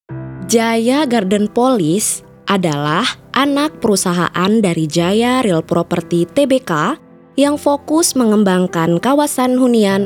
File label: Indonesia formal